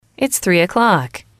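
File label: its three oclock, English, female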